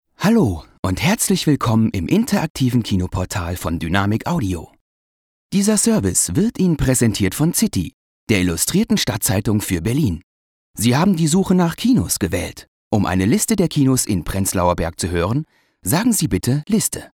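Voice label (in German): telefon, deutsch männer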